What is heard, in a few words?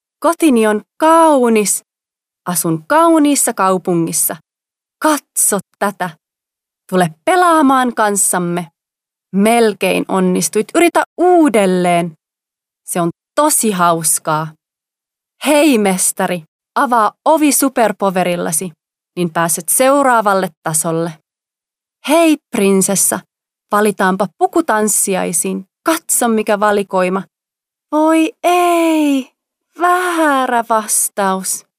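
Finnish female children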